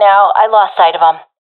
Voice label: lost sight enemy, professional fem; vo; Counter Strike Model Pack; CS:GO